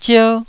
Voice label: "zier1", Taiwanese